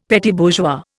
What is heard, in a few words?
"petit bourgeois", Indian; Dictionary